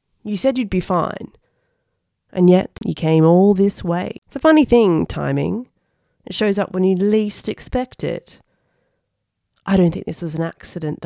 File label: British